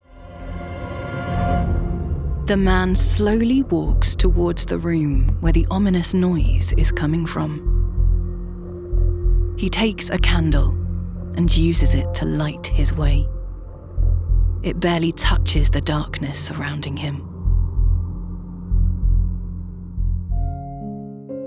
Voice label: Audio Description